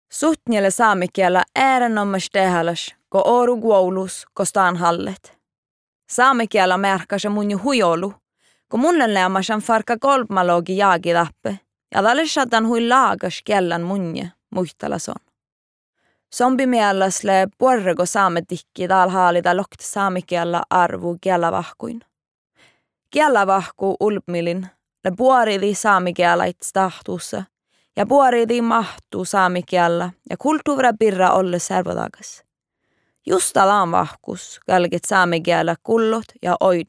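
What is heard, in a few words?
no, Norwegian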